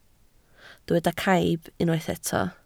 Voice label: "caib", Welsh